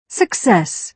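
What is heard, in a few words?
"success", British English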